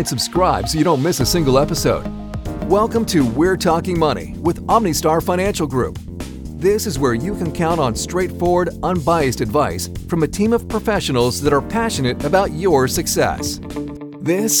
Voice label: Podcast

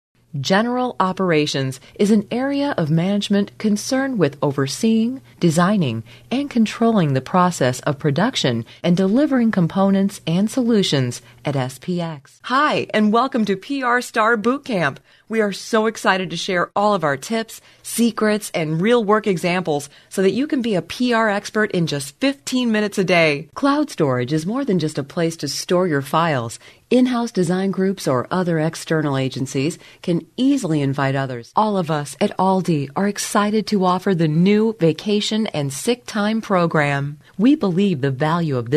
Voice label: Elearning

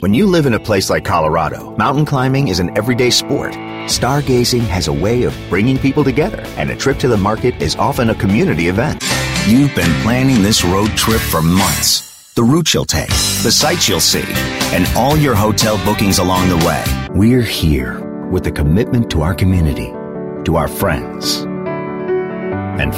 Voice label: Commercial; male voice actor

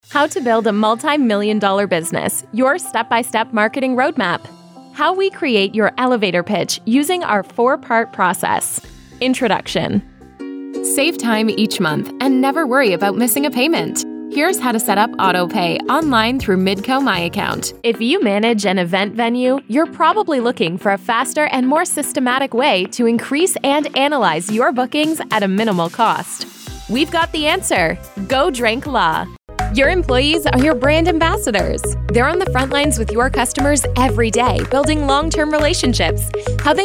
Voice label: e-learning

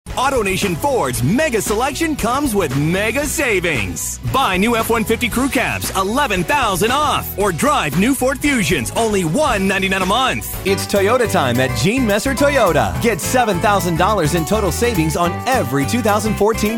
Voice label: Car Spots

